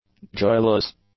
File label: "joyless", english; adjectives